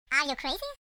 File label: crazy; wormux